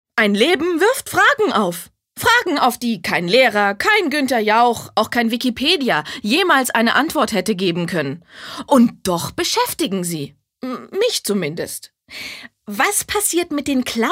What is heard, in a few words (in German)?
Schauspiel Dynamisch